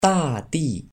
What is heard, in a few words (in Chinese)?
"大地", Chinese